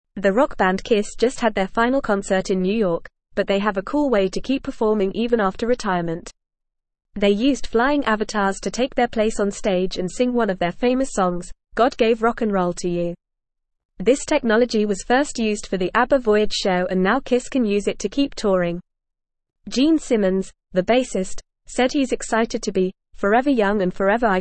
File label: Upper Intermediate FAST Reading, English; English Newsroom